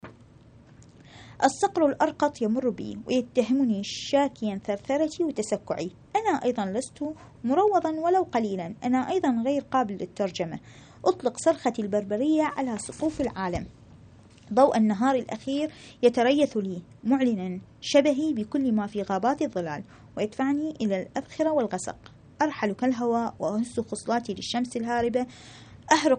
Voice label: arabic